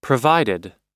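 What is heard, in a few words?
"provided", American English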